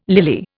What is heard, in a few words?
"lily", Indian